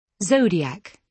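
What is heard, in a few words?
"zodiac", British English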